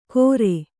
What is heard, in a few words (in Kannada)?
"ಗೋರೆ", Kannada